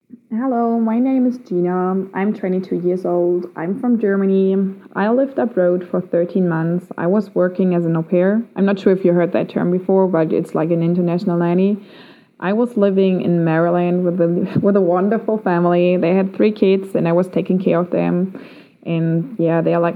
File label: German Age 22; Germany